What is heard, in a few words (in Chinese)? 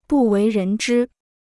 "不为人知", Chinese